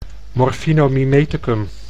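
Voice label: "morfinomimetecum", Dutch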